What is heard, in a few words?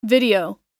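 "video", American English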